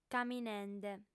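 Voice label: "caminende", female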